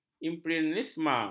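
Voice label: "impresionisme", Malay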